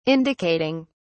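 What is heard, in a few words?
"indicating", British English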